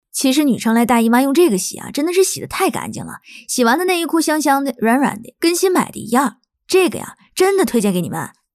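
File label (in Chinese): 女【方言】东北话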